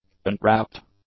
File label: "untrapped", english; adjectives